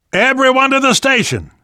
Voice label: world hospital, namvet, survivor voice